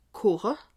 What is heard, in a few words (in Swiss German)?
"koche", alsace